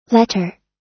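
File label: "letter", US English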